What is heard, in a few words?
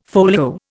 "folio", Indian